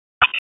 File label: Police North District Tac 1; Raleigh; wake